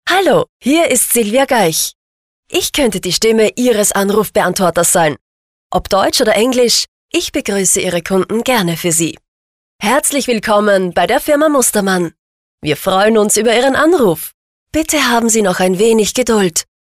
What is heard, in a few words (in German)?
Telefonansage